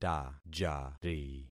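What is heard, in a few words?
"tajari", concatenated syllables; Affixing experiment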